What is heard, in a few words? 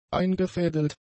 "eingefädelt", German; adjectives